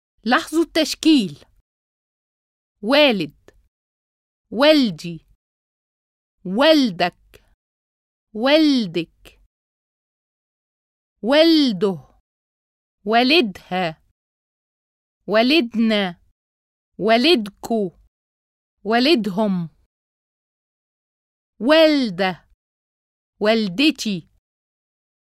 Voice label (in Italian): Vocalizzazione arabo colloquiale